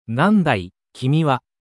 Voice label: anime

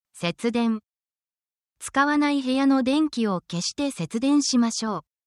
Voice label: japanese resources energy vocabulary

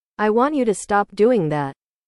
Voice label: english

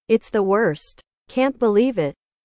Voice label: english

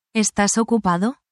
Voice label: spanish, spanish phrases

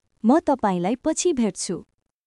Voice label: Nepali, basic phrases

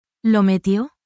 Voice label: spanish, spanish phrases

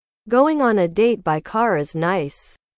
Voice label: english

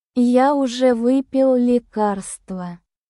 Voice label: russian phrases; 100 must know russian phrases for everyday conversation

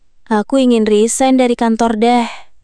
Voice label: indonesian phrases

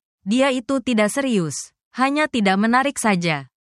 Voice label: indonesia, negative phrases

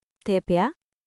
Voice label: Nepali, basic phrases

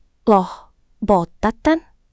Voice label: indonesian phrases

